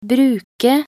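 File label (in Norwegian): "bruke", Norwegian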